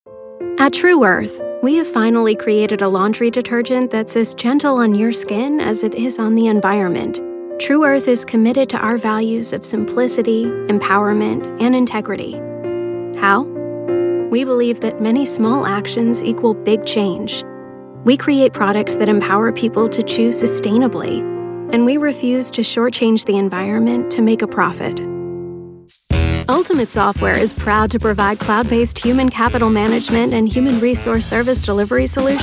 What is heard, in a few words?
american voice actor corporate